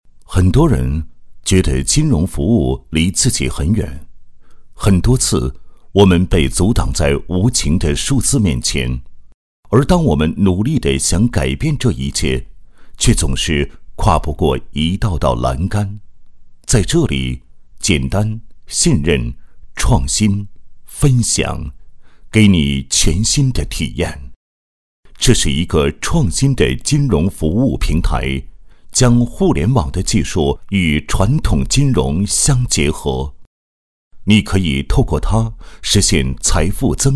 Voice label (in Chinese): 金融服务 成熟解说; 产品介绍